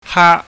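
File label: "ha", Cantonese, high falling tone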